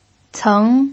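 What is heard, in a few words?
"ceng", pinyin, second tone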